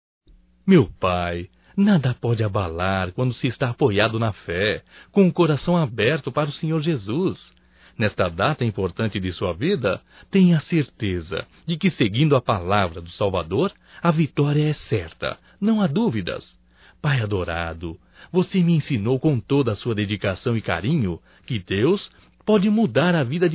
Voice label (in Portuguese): Aniversário Pai Evangélico Masculino; Aniversarios; Telemensagens 2017